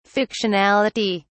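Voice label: "fictionality", English, British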